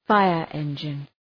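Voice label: "fire-engine", English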